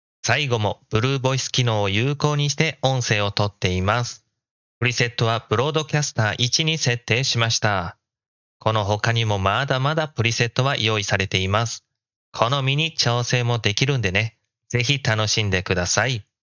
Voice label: logicool g733; logicool g733 review